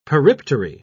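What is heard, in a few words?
"periptery", British English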